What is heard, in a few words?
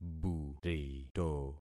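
"burito", concatenated syllables; Affixing experiment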